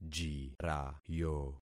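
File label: "jirayo", concatenated syllables; Affixing experiment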